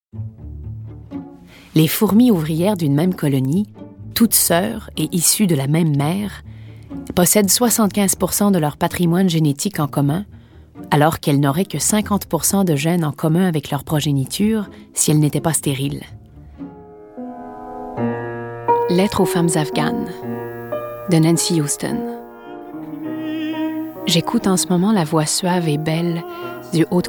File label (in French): Narration